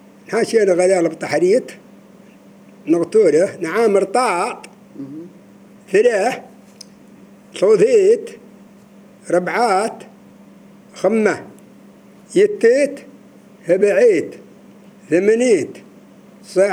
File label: counting 1 to 10 masc